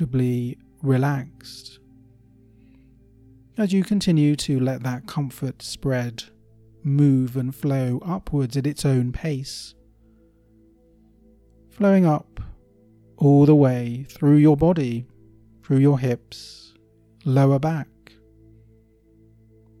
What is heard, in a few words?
hypnosis deep relaxation